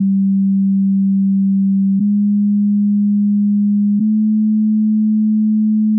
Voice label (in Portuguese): F0 mulheres extensao